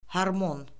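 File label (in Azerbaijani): "hormon", Azerbaijani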